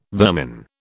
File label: "vermin", British